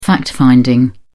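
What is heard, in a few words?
"fact-finding", British English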